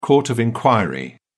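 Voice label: "court of inquiry", British English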